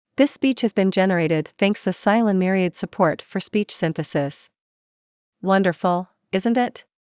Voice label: speech test en US